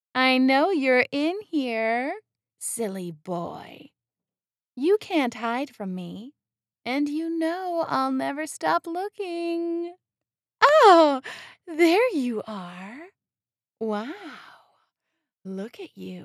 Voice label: Character